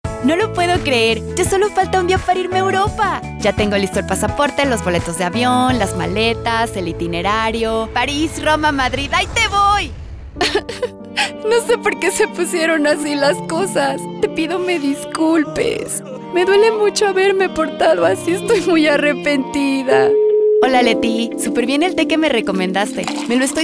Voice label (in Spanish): Personajes